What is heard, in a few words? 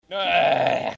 annoyed; assassin m4a1